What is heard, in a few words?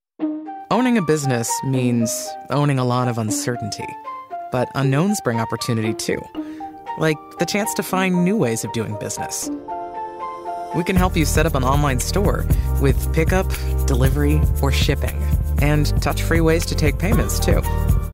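conversational emotional empathetic compassionate hopeful real nonannouncery, Female Voice Over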